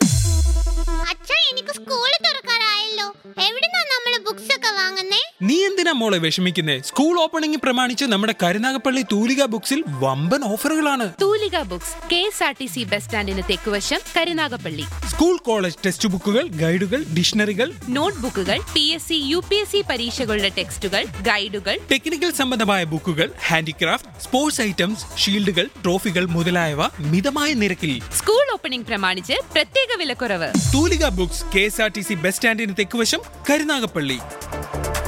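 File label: promo, Malayalam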